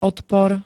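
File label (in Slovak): "odpor", Slovak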